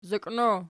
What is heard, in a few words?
"zoqino", Archi